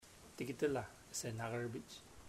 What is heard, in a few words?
Im afraid of that man, chamacoco; Puerto Diana